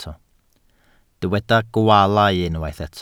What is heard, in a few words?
"gwaalau", welsh